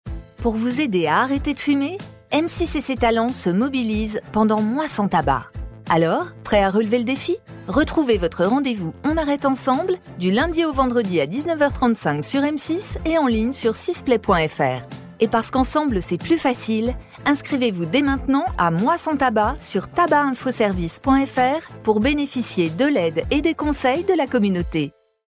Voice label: pub naturelle complice; MOIS SANS TABAC

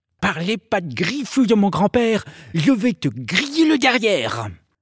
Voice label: voix jouée; dagobert

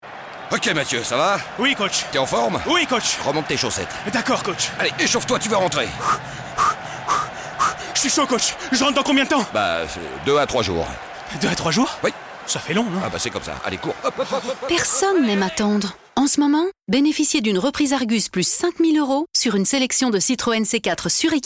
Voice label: RADIOS COMEDIE OFF; CITROEN

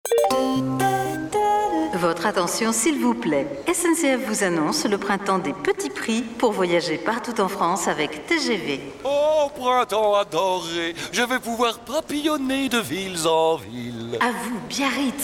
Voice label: promo; SNCF; Mars

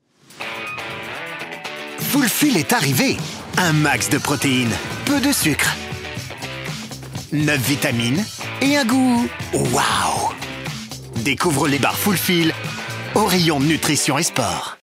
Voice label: Pub TV; Fulfil

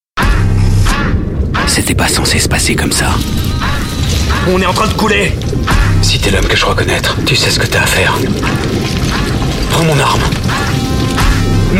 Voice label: Pub commandant Autoritaire; Battlefield 4

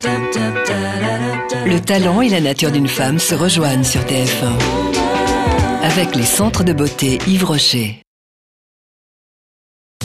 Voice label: Pub TV; Yves Rocher

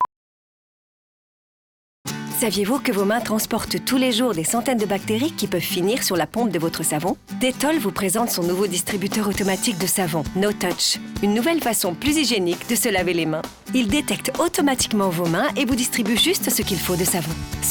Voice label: Spot Télé Chaleureux Complice Rassurant; Dettol

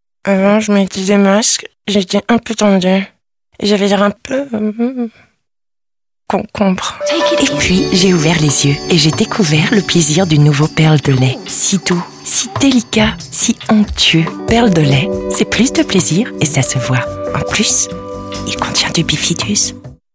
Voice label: comedie; Perle de Lait

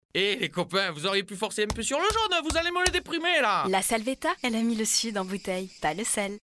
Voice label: SIGNATURE Souriante Leger accent du sud; La Salvetat

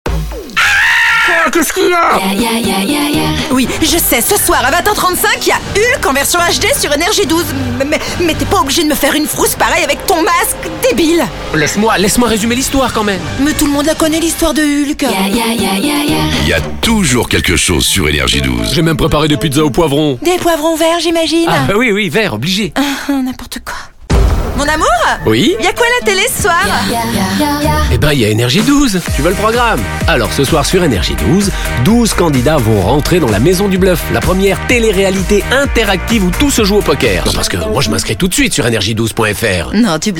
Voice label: comédies punchy; NRJ12

